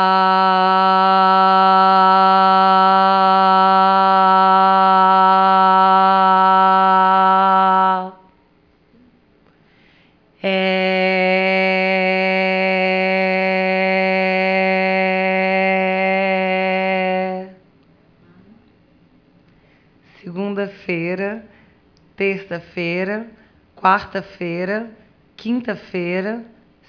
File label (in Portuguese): Equilibrada, Ressonância; fonoaudiologia